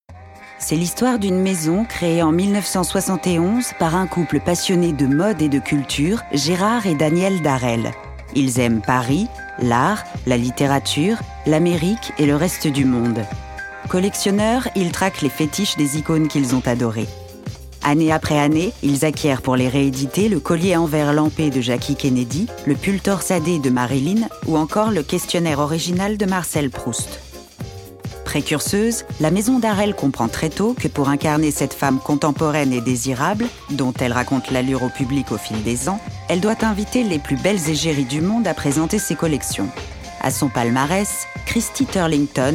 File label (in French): film institutionnel; Gerard Darel